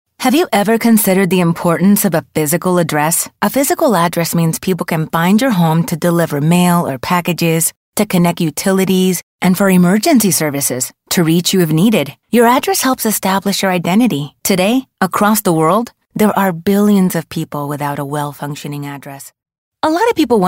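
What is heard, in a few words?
Latinx English Explainer Videos, Female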